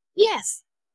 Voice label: VoiceActing es